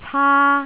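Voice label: "caa1", Jyutping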